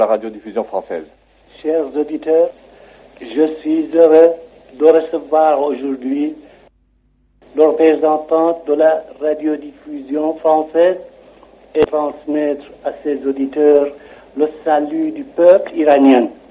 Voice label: voice, French; Mohammad Mosaddegh; 19 July 1953